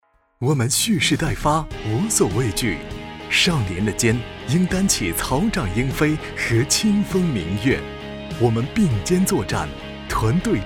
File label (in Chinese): 情感旁白积极向上